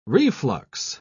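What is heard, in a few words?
"reflux", English, uk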